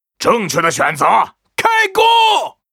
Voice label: Mortar team yes, zh

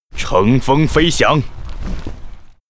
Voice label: Dragonhawk yes, zh; 龙鹰骑士（魔兽争霸3）; 人类; I fly.; 乘风飞翔。

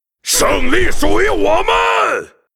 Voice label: Warlord warcry

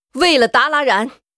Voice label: warcry

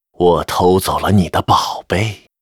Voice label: Spellbreaker pissed, zh

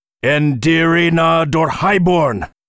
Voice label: Spellbreaker warcry

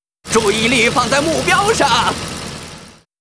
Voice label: Gyrocopter pissed

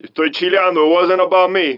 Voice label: 拉丁裔海滩中年男性; 罪恶都市

